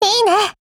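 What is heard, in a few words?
PHRASE Japanese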